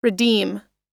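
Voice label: "redeem", US English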